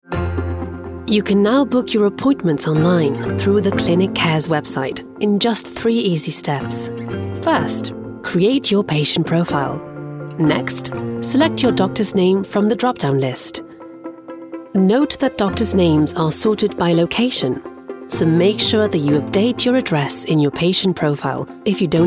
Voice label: Corporate MegaMix

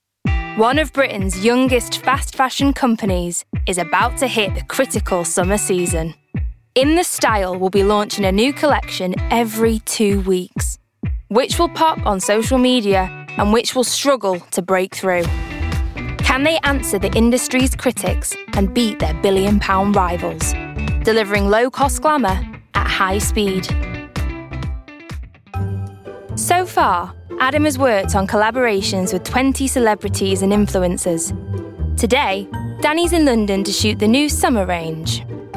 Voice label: Documentary

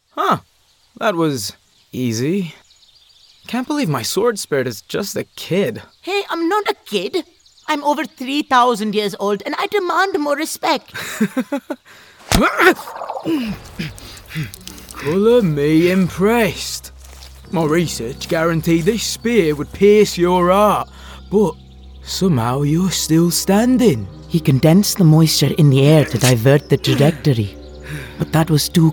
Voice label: Animation

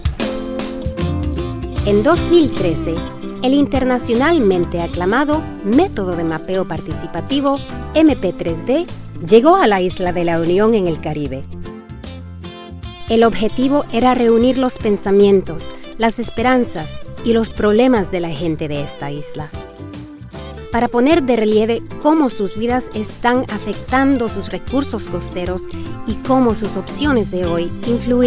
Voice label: Spanish

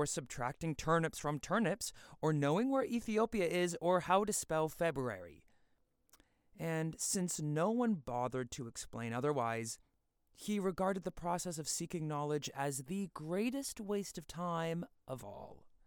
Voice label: Home Studio Raw Cardioid Mic Home Test

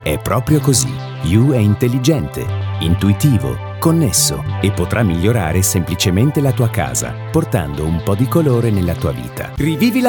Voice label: Italian reel

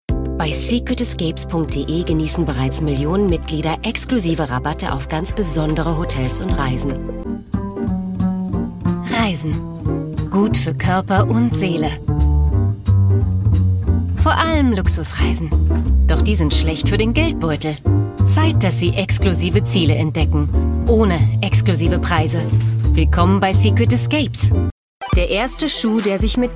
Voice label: German

